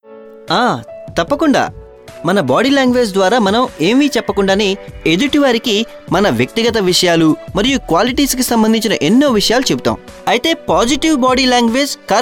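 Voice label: explainer, Telugu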